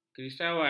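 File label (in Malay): "kerisauan", Malay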